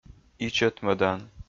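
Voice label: "ичӧтмӧдан", Komi, male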